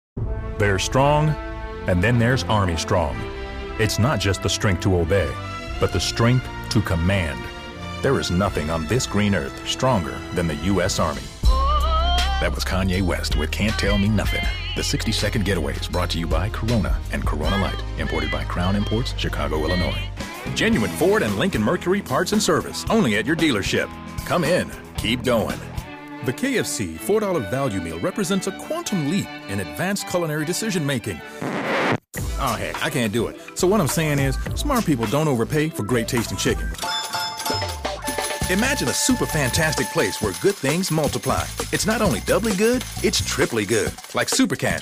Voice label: COMMERCIAL